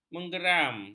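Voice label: "menggeram", Malay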